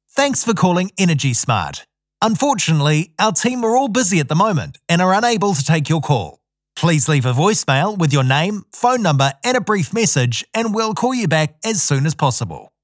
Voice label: Phone Voicemail Greeting; EnergySmart; professional voicemail greeting

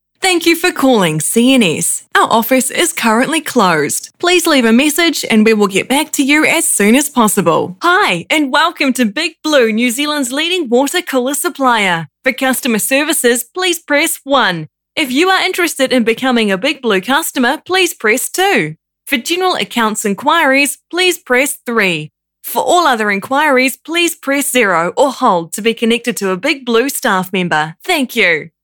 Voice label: NZ PHONE

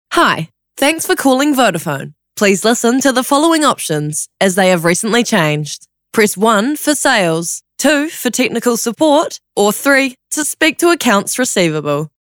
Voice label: NZ PHONE

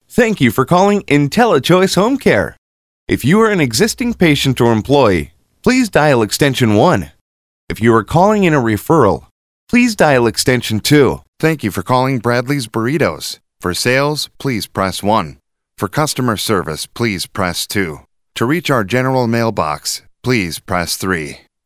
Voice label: US PHONE

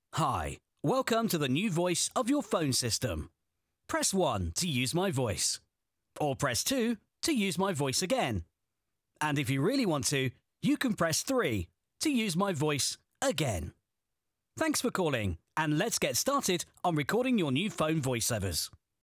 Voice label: Phone

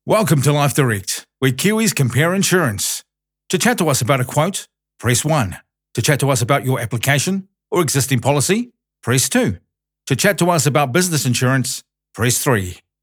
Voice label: Phone IVR; Life Direct

